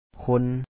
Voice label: "ຄົນ", Lao; kho1

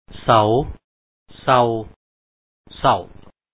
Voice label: sao, ເສົາ/saw ເສົ່າ ເສົ້າ, Lao; Reading Exercise

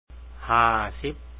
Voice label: 50, Lao; ຫ້າສິບ; ha3a-si2p

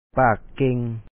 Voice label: "pa3ak ke#N", Lao; speak well; ປາກເກ່ງ

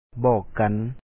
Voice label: "bO3Ok kan", Lao; tell each other; ບອກກັນ

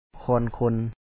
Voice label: ຄອນຄົນ khO2On kho2n, Lao

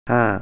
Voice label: "hâ:" 'five', Thai

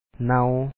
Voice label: "na#w", Lao; short vowel words; ເນົາ

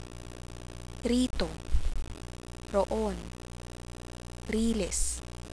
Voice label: r initial, tagalog consonants

